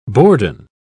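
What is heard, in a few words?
"bourdon", British English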